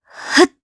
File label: Casting, jp; kingsraid